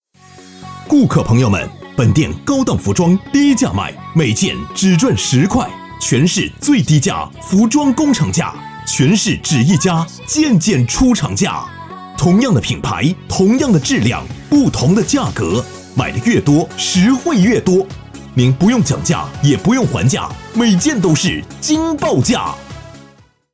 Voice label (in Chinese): 样音低端促销